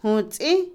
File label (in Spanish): Otomí, mujer; vestir, usar; Ropa; INALI